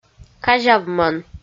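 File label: "казявмӧн", Komi, female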